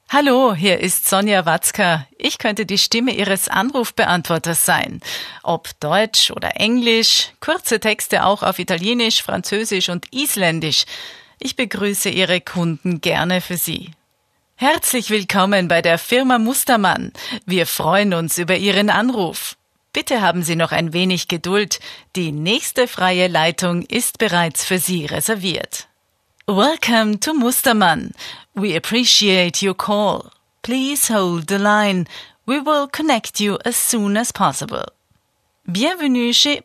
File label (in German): Sprecher Telefonansagen